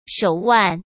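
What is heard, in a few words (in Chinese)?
"手腕" Mandarin Chinese; chinese-flashcards; hsk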